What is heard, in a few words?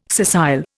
"scissile", Indian